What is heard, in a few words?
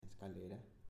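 "Escalera", Spanish, male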